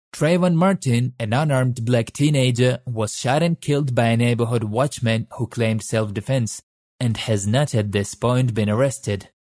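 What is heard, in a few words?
Ukrainian, male, English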